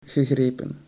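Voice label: "gegrepen", Dutch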